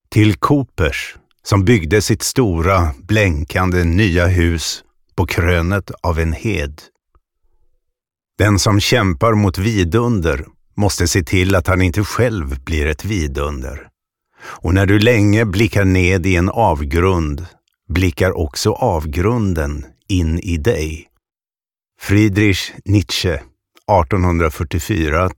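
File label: Swedish audiobook male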